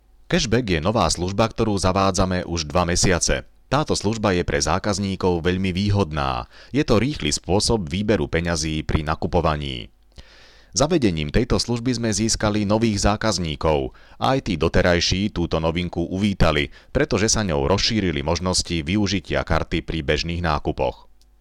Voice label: narration